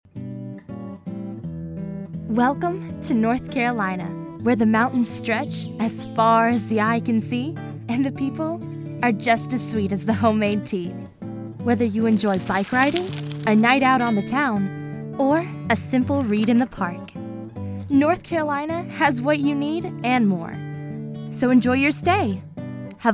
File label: USA